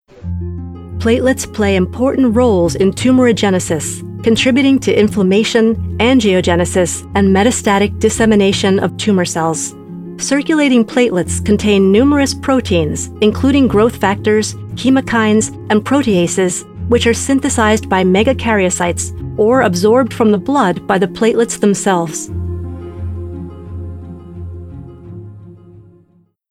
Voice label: medical narration